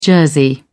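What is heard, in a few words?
"jersey", British English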